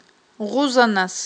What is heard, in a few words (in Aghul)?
"гъузанас", Aghul